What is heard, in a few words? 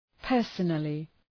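"personally", English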